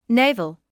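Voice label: "navel" English, British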